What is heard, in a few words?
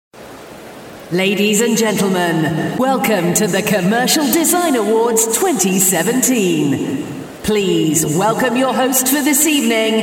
voice of god live announcing